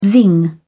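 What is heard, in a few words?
"zing", British English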